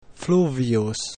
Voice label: "fluvius", latin